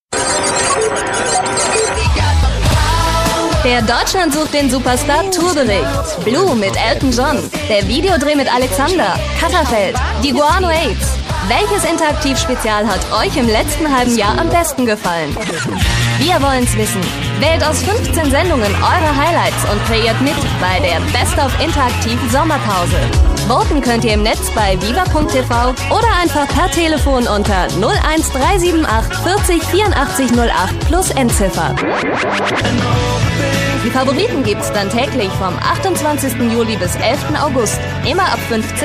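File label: german female commercial; voice demos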